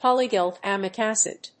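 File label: "Polyglutamic acid", English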